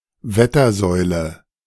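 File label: "Wettersäule", German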